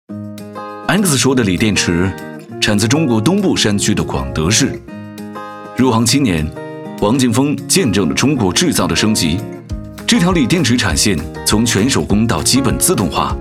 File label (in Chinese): 男 宣传片 素人轻快风 太阳能电池; 素人风格男声